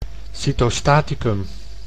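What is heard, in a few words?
"cytostaticum", Dutch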